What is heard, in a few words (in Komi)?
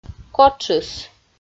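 "котшыс", Komi-Zyrian, female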